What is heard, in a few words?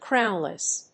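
"crownless", English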